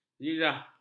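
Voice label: "zirah", Malay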